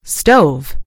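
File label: "stove", American English; gcompris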